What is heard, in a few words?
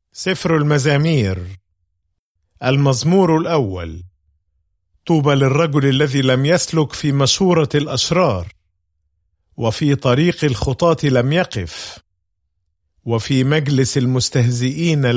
Psalm, arabic bible; psalms